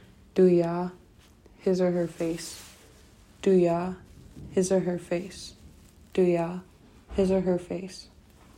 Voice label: her face, tli; body parts